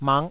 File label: "mak5", Taiwanese syllable